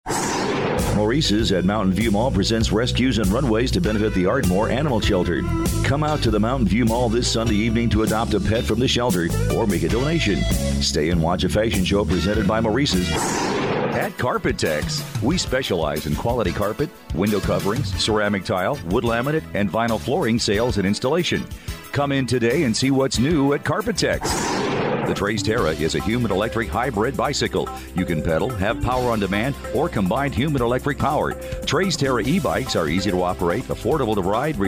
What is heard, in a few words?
Male, English, American; USA; Commercial Demo